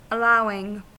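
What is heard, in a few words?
"allowing", US English; pronunciations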